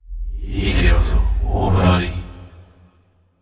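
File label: sad, voice; aragami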